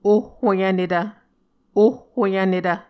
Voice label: "ohhoyvnetv", Muscogee; A Dictionary of Creek/Muskogee; SIL International